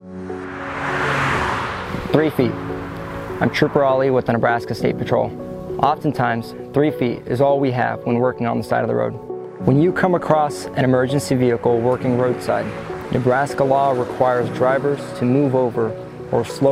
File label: Move Over PSA Radio; Nebraska State Patrol